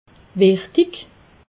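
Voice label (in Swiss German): "wichtig", Haut Rhin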